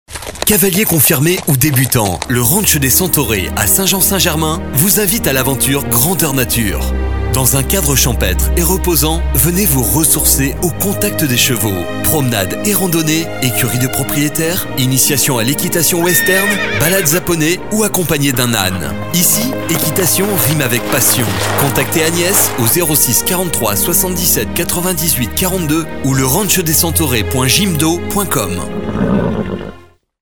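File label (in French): advertising spot, leranchdescentaurees; Spot publicitaire; GRAFFIC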